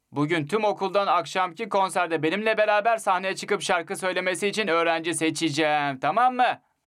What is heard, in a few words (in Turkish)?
erkek çocuk